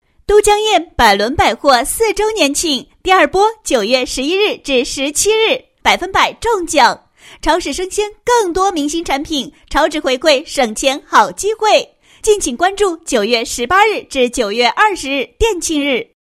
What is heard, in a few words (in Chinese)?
女 广告; 百伦百货4周年庆第二波